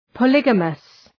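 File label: "polygamous", English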